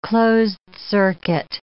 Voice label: "closed-circuit", English